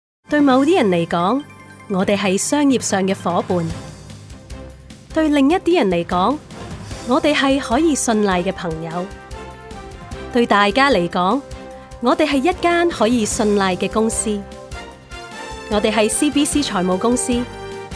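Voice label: Cantonese, TV commercial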